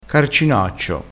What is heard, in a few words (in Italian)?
"carcinaccio", crespinese